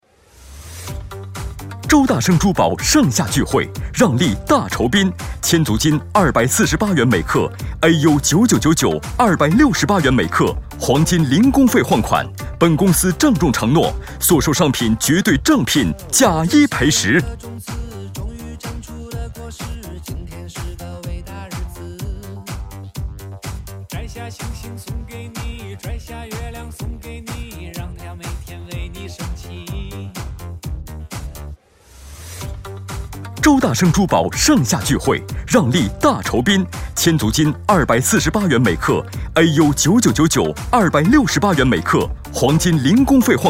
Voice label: 促销广告 珠宝

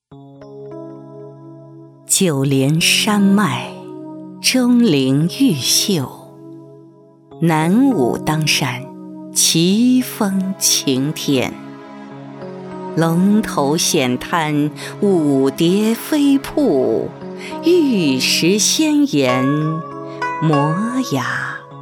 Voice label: 解说; 龙南

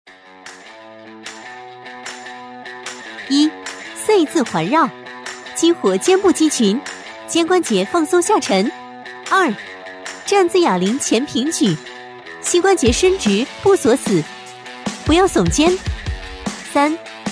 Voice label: 解说 激情力量; 健身; 酷可健身

